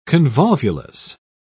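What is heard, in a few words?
"convolvulus", British English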